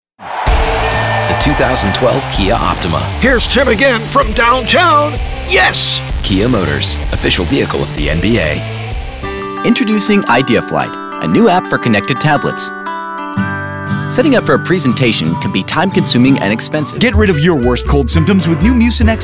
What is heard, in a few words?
radio samples